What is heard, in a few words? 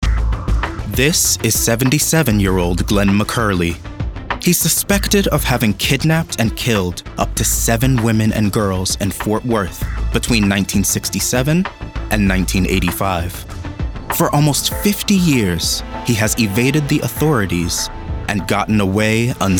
DOCUMENTARY